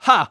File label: voice stroke